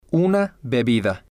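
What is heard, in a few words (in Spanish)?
"una bebida", Spanish, male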